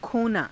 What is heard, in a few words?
"khonaa", Hindi; [他動]失う; खोना